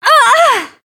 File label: Voice damaged